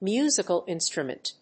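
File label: "musical instrument", English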